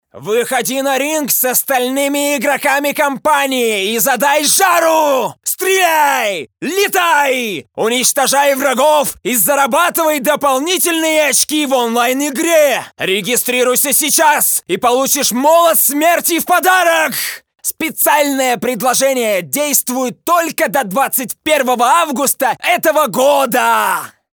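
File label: Russian male Rage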